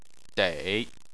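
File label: "dei" third tone, Chinese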